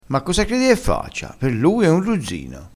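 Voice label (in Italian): "ruzzino", vocabolario dialettale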